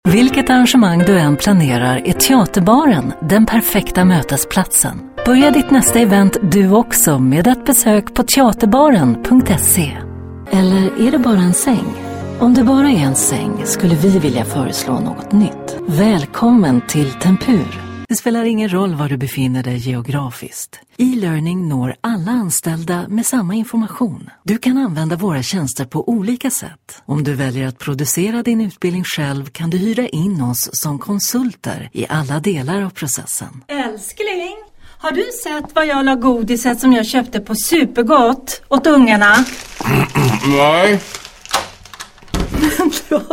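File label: swedish female commercial; voice demos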